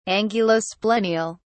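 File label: "angulosplenial", British English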